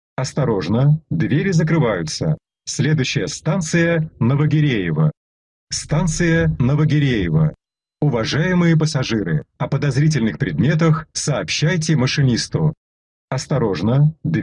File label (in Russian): diktor v moskovskom metro kalininskaya liniya